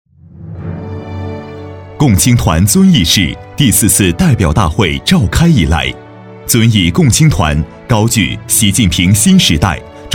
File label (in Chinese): 男 党政专题